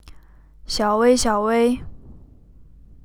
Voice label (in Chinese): normal, 中文唤醒词命令词; 中文唤醒词命令词音频数据集